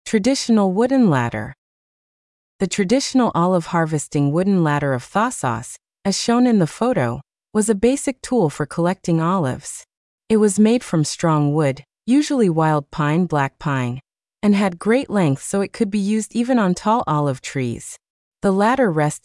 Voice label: english, Audio guided tour; Σκάλα; It is a characteristic example of Thasos’ rural tradition and folk craftsmanship